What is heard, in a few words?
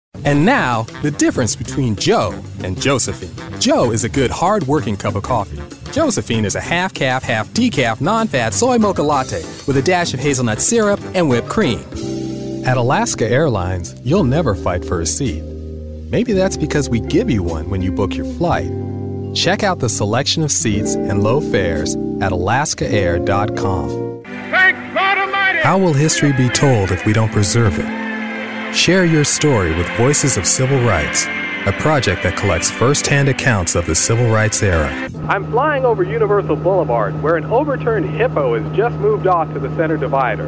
Commercial